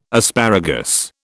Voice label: "asparagus", British